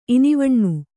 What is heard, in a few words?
"ಇನಿವಣ್ಣು", kannada